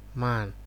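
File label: "man", Nigerian Pidgin, male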